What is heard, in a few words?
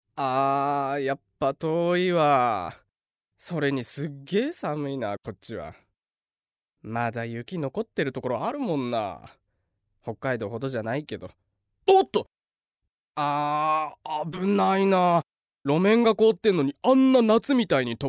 male voice